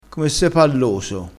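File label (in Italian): "palloso", dialettale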